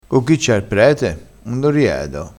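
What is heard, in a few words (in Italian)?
"riede", vocabolario dialettale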